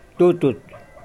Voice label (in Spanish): Nawat palabras pajaro; pipil